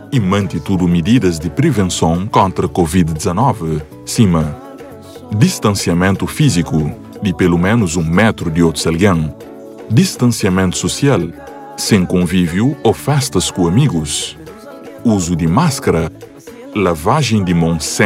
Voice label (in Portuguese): Alta Hospitalar Radio